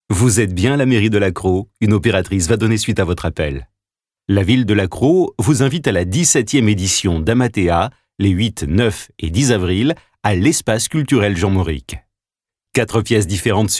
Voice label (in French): répondeur voix off; audiotel